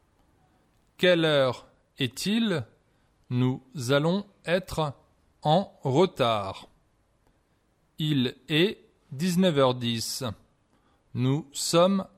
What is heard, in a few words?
Conversation, French